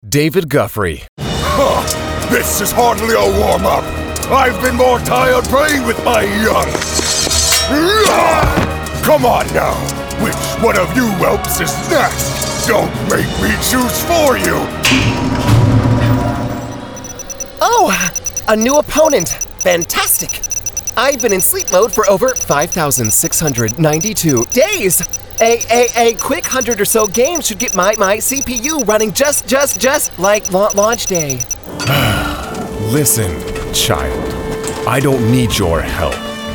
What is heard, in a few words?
Character